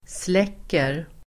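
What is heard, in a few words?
"släcker", Swedish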